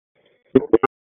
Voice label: Police District Tac, p25; Raleigh; North; wake